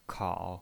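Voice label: "kao", third tone, pinyin voice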